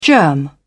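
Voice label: "germ", British English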